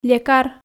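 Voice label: "лекар", Komi, female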